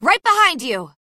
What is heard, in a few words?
ping flank, female